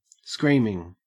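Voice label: "screaming", Australian English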